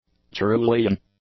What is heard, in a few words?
"cerulean", English; adjectives